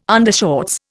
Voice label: "undershorts", Indian